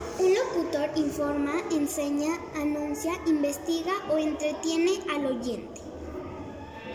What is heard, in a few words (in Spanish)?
locutor